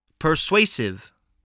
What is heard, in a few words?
"persuasive", voice, English